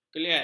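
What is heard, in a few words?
"keliat", Malay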